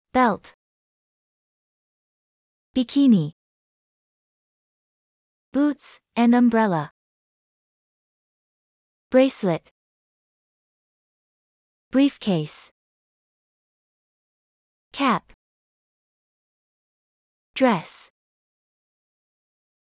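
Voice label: American; match word bank clothes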